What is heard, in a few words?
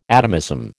"atomism", American